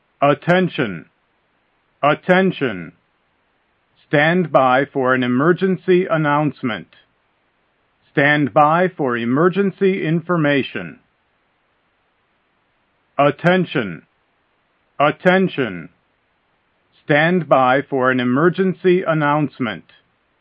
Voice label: Pre-Announcement for Live Broadcast